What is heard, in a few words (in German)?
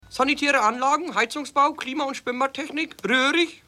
Telefonansage